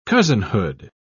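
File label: "cousinhood", British English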